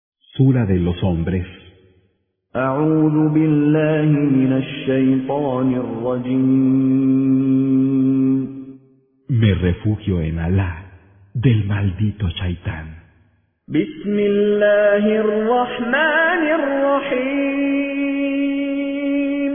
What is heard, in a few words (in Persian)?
ترجمه گویای قرآن به زبان اسپانیایی; با تلاوت مشاری العفاسی; سوره ناس